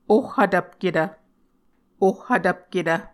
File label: "ohhvtvpketv", Muscogee; Mvskoke Words with Repeated Consonants; Martin, Jack B., and Margaret McKane Mauldin. 2023. A Dictionary of Creek/Muskogee